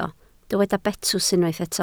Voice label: "betws", Welsh